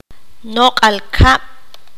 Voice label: "abeja", Q'anjob'al

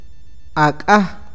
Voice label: nuevo, awakateko

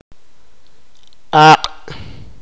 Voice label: "lengua", sipakapense

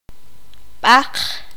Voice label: hueso, qanjobal

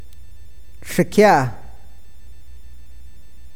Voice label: cielo, awakateko

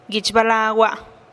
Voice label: "guich balagua" mi pestana, teotitlan

